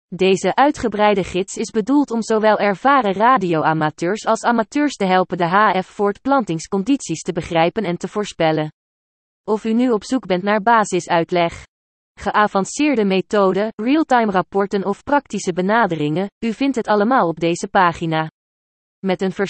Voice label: Dutch

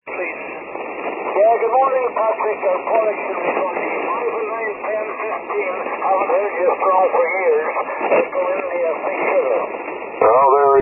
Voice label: 80m ssb

